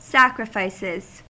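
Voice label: "sacrifices", American English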